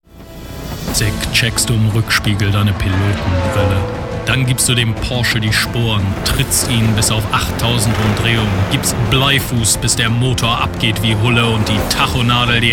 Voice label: male German compilation

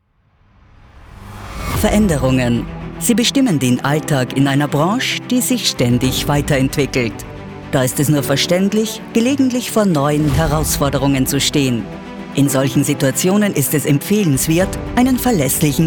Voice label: female austrian image video explainer; uninet

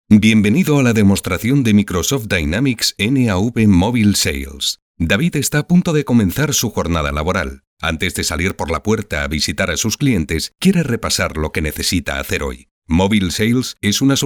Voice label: male spanish castilian e-learning

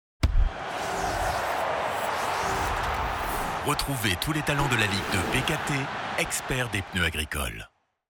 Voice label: male French football league promo; bkt; devine voices

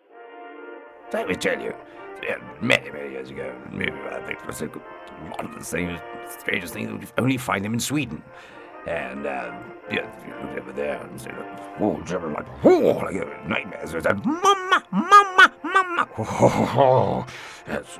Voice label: male english english rp send up; rowley birkin the fast show

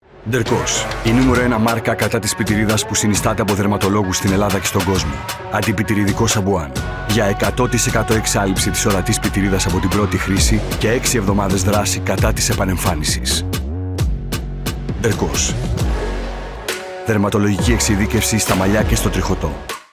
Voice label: male greek commercial; vichy dercos

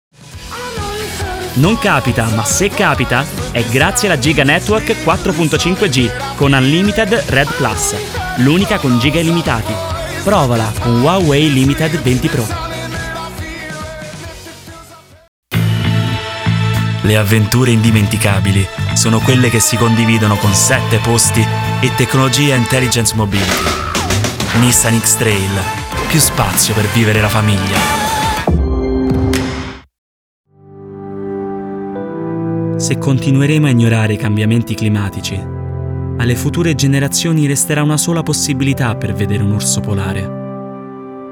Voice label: male italian compilation

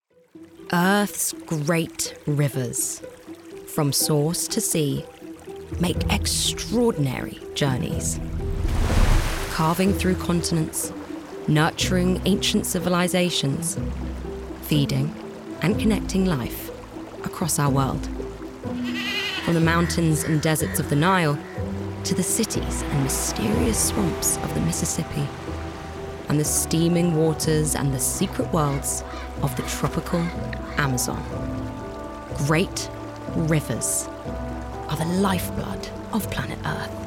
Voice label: female English documentary narration; english southern accents; earths great rivers

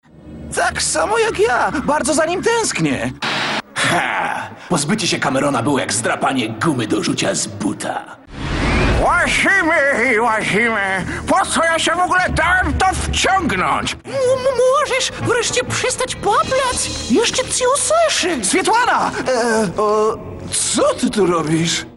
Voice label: male polish character compilation

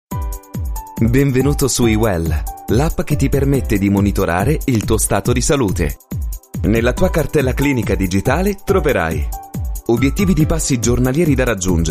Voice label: male italian e-learning

